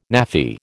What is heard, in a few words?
"Naffy", American